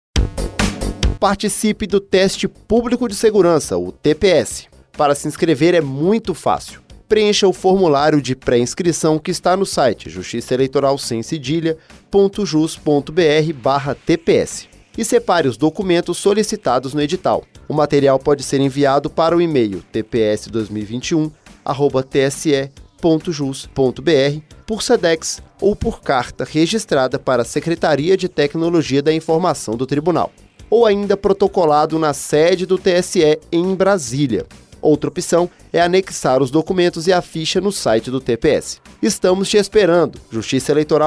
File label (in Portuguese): spot; como se inscrever tps; veja como se inscrever no tps 2021